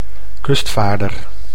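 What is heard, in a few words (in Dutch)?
"kustvaarder", Dutch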